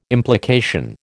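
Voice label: "implication", American English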